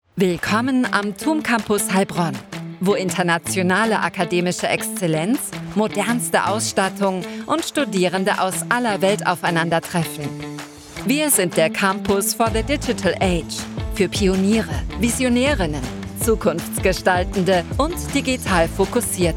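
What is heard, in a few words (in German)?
Recruitingfilm natürlich dynamisch; tumcampus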